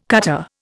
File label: "cutter", Indian